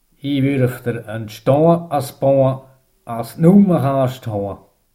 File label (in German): Sennwald Spruch, Sennwalder Dialekt